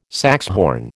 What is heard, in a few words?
"saxhorn", American